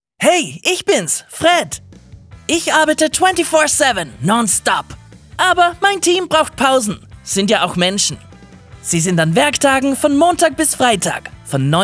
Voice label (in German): Telefonansage